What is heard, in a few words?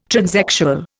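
"transexual", Indian English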